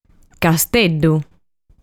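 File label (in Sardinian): "Casteddu", Sardinian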